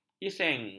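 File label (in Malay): "iseng", Malay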